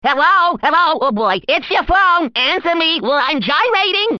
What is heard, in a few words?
Funny Answer Me